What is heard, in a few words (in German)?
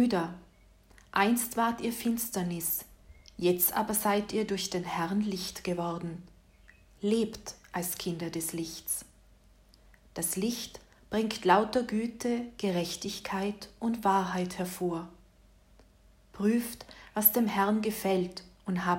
Lesung